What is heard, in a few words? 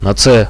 bomb here, radio bot; Reallite